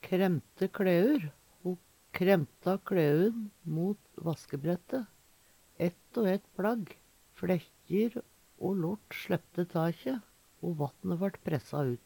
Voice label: kremte klaeur, Numedalsmål

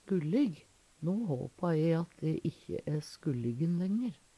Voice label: skullig, Numedalsmål; Eksempel på bruk No håpar e at ikkje æ skullig`n lenger.; "sjyllig" blir og bruk

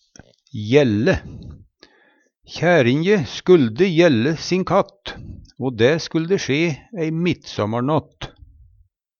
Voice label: jelle, Numedalsmål

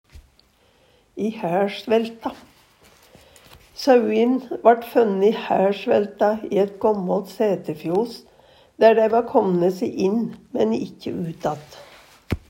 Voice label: i haeLsvelta, Numedalsmål; Sauin vart fønne ihæLsvelta i eit gåmmålt sæterfjos dær dei va kåmne se i

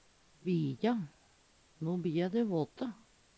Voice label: bija, Numedalsmål; No bija de våte.; "bli og bija" er same ordet

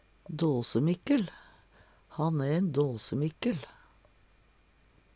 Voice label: "dasemikkel", Numedalsmål

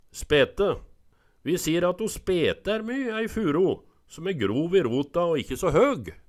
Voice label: spete, Numedalsmål

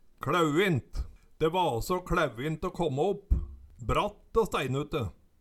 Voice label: kLæuint, Numedalsmål

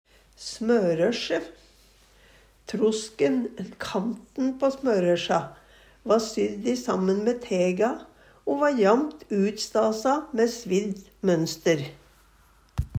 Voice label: smørøsje, Numedalsmål

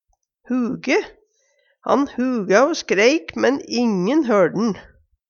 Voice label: huge, Numedalsmål; Han huga o skreik, men ingen hørd'n.

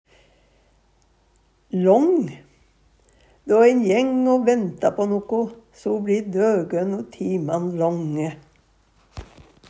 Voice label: lang, Numedalsmål; Då ein jeng o venta på noko, so bLi døgøn o timan långe.; Adjektiv

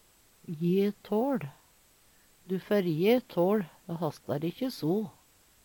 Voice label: je tal, Numedalsmål

